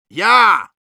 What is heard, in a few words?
medic yes, ru; «Ja.» (Перевод: «Да.»)